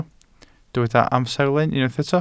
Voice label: "amserlen", Welsh